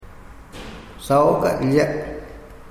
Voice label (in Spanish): moqoit lechuza; materiales bilingues; huellas